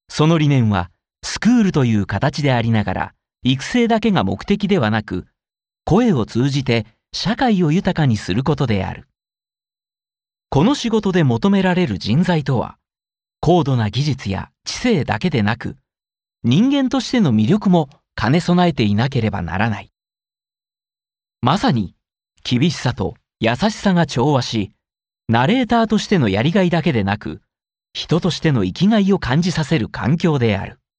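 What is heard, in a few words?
Japanese, male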